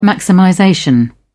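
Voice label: "maximization", British English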